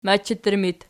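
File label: "machattur", locative, Archi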